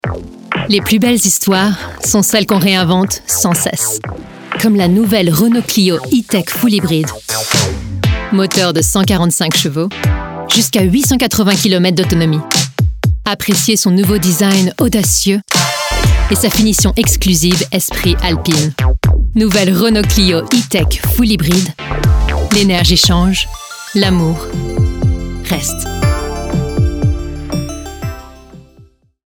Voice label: Ad French Language; Renault